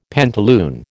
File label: "pantaloon", American